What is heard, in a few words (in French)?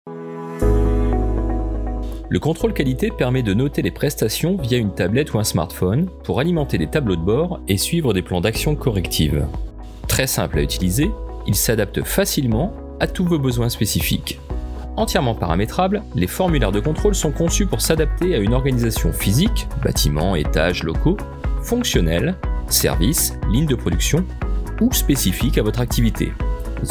VOIX OFF CONTROLE QUALITE